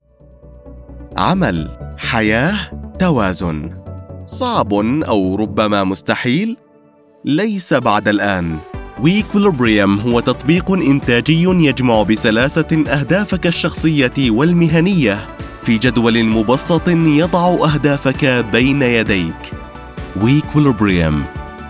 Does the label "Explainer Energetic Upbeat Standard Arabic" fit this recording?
Yes